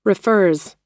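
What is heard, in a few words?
"refers", US English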